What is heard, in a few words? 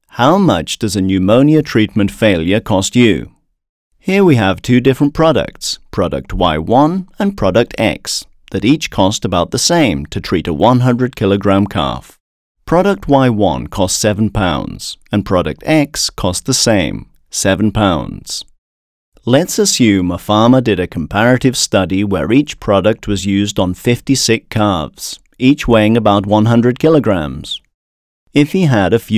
eLearning